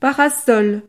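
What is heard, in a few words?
"parasol", French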